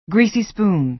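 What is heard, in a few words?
"greasy spoon", American English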